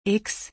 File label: The letter X in German